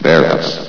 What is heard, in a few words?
"barracks", vox; Source Engine; bshift